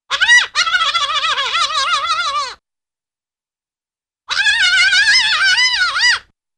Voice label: Small Tiny Insect-like Rapid Talking Or Yelling, Cartoon; Hollywood Edge; Cartoon Trax